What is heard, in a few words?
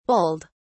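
"bulled", British English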